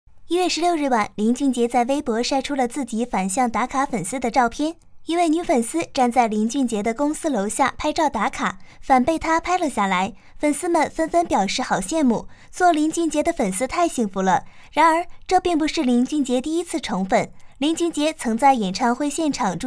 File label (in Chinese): 女配娱乐新闻